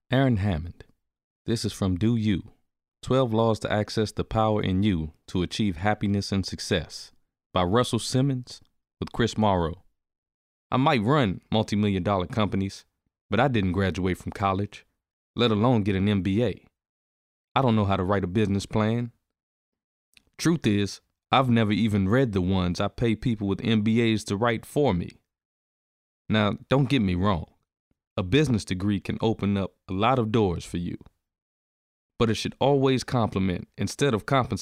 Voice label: Self Help, audiobooks